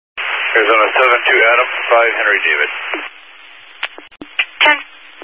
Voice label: Highway Patrol, Phoenix Metro East Area, scanner